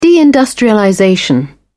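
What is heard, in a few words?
"deindustrialization", British English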